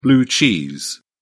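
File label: "blue cheese", British English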